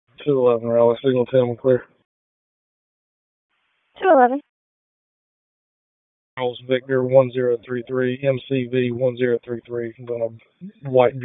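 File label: Police Northeast District Dispatch, p25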